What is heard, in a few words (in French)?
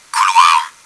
long hall, french; csco; csgo; bot